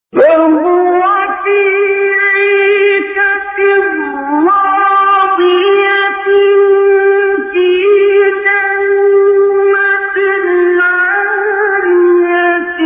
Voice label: تلاوت آیات سوره مبارکه الحاقة; آیات 21 تا 24

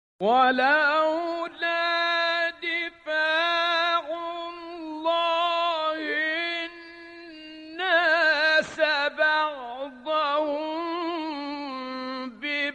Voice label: تلاوت زیبای آیه 40 سوره حج; متولی عبدالعال

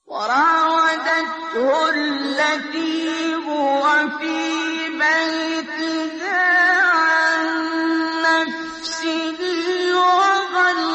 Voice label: تلاوت زیبای آیه 23 سوره یوسف; استاد عبدالباسط

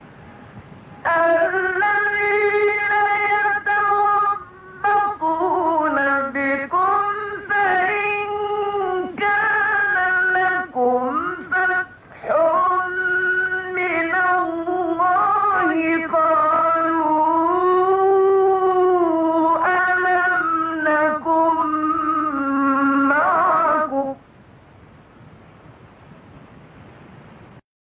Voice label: فرازی زیبا از سوره نسا; آیه 141; استاد منشاوی

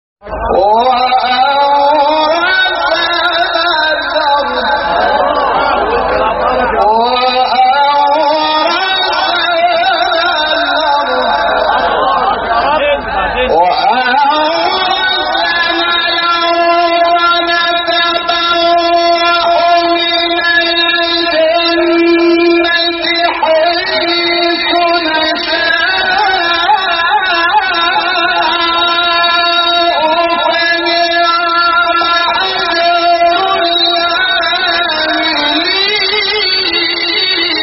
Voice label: تلاوت آیه 74 سوره مبارکه زمر; انور شحات انور

